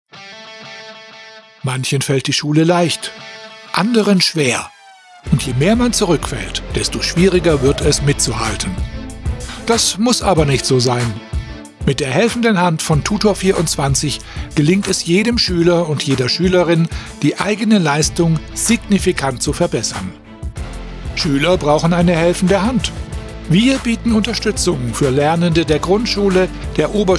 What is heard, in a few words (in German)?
Werbe; Tutor24